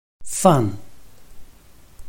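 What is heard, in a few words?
"fan", Chinese, tone 4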